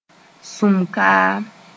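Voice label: "sũm-kaam", Mooré; ground-nuts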